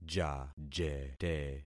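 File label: "jajete", concatenated syllables; Affixing experiment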